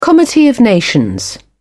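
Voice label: "comity of nations", English, British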